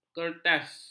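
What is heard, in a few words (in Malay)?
"kertas", Malay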